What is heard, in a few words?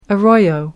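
"arroyo", British English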